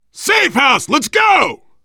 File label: safe spot ahead, voice, biker; Left 4 Dead 2; left4dead2 dlc3; survivor